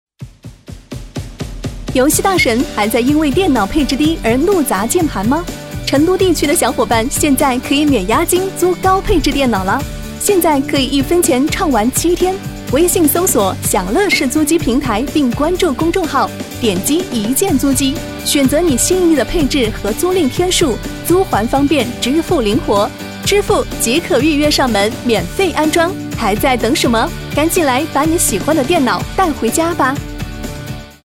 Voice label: 样音 广告; 样音广告享乐士平台

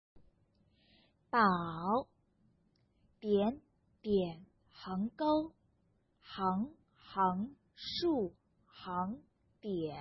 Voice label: 中文 配音

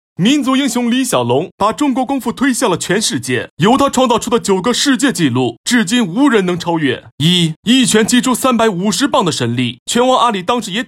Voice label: 短促有力; 李小龙

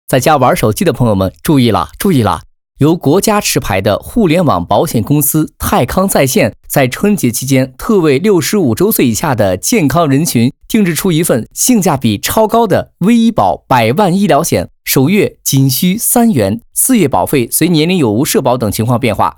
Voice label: 抖音广告时尚叙述保险

